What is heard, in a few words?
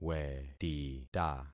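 "wetita", concatenated syllables; Affixing experiment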